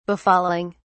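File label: "befalling", en, uk British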